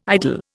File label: "idol", Indian